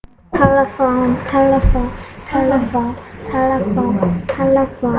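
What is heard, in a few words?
"telephone", spoken word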